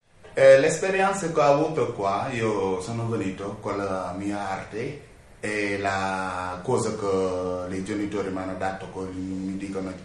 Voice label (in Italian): Intervista, Senegal